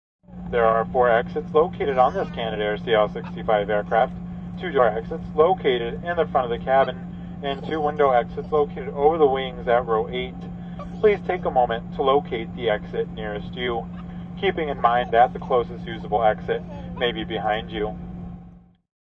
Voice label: Steward Exits, Steward announcement regarding exits on plane; Stewardess Exit Info 1; Airplanes; Vehicles